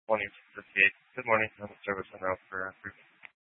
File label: Mesa County Sheriff Dispatch; scanner